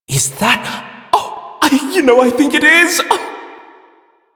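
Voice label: Male Brisk en, ambient dialog; Warframe; Duviri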